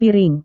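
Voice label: "piring", Indonesian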